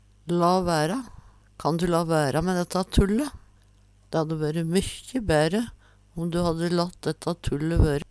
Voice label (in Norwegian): la vaera, Numedalsmål; Kan du la væra mæ dætta tulle? Dætta konna du latt vøre.